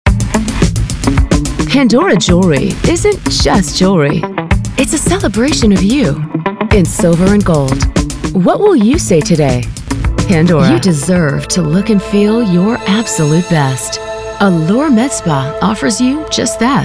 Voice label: COMMERCIAL